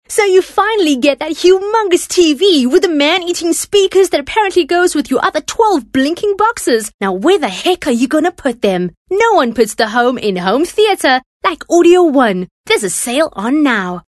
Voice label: English Hardsell